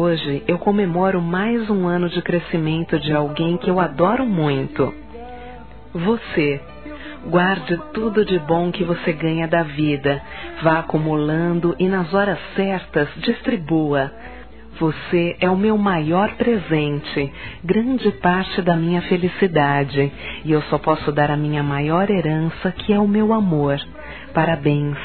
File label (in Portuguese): Aniversário Sobrinha Feminino; Aniv Familiar